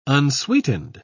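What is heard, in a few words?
"unsweetened", British English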